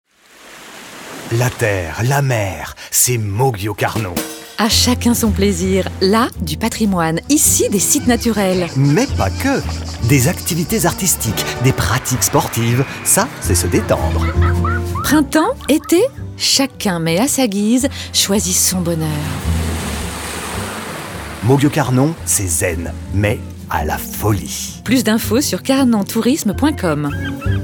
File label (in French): spot radio; mauguio carnon; fb toulouse; herault